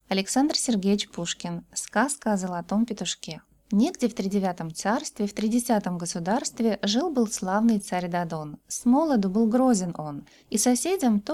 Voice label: Voice Rus